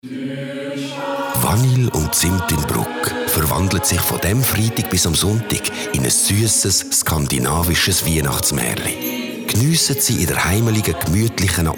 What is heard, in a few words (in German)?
Werbung CH AG, schweizerdeutsch